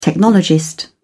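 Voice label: "technologist", British English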